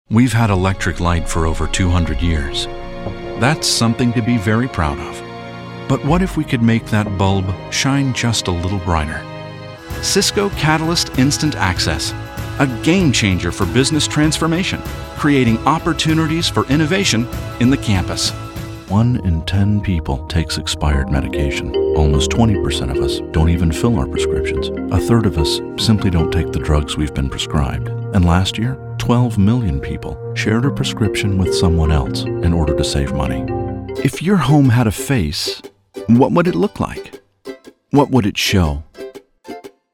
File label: Explainers